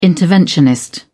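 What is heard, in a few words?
"interventionist", British English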